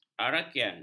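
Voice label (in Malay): "arakian", Malay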